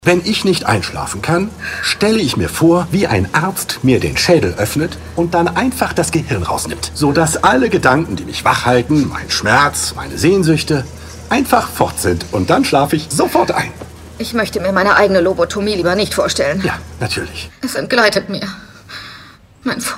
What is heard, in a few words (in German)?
Synchron, Lip-Sync, German; Synchron - The regime